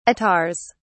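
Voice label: "attars", English, British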